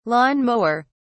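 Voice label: "lawn-mower", British English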